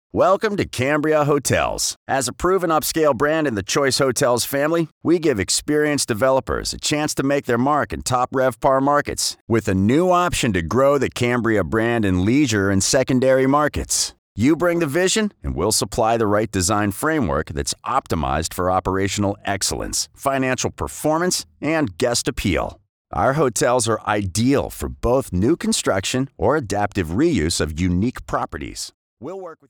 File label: conversational friendly male voice over; cambria hotels